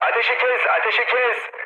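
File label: Turkish player friendly fire, mood med, speech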